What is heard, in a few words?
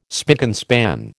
"spick and span", American